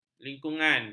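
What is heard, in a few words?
"lingkungan", Malay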